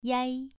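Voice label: "yai2", Chinese pronunciation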